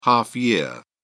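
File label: "half year", British English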